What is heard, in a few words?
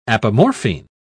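"apomorphine", British English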